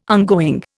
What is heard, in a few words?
"ongoing", Indian